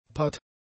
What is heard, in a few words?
"patt", German; adjectives